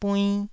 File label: "buinn1", taiwanese Syllables